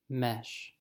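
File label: "mesh", American English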